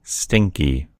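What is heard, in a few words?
"stinky", American English; gcompris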